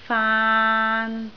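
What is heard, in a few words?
"faan3", Cantonese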